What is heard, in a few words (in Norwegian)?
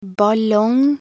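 "ballong", Norwegian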